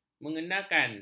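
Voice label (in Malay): "mengenakkan", Malay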